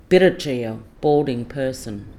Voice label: "pirretayil" balding person

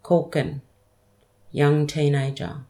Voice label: "kulk'n" young youth teenager

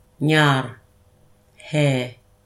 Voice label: "ngarrə" hair

